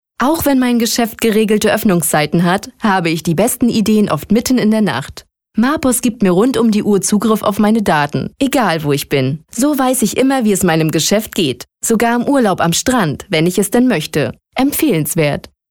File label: narration